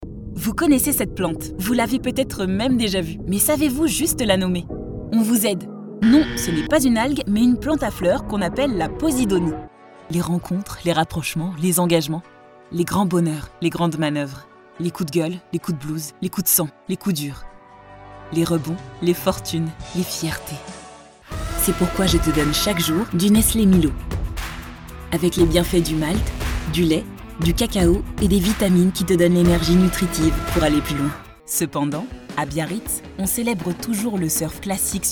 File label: french commercial, comical voice actors; France